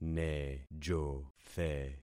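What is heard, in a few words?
"nejothe", concatenated syllables; Affixing experiment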